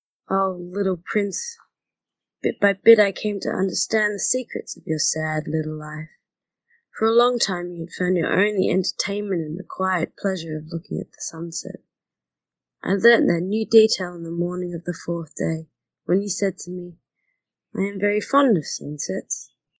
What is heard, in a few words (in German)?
Englisch, Melbourne